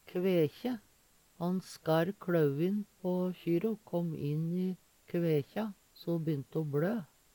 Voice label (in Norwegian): kvekje, Numedalsmål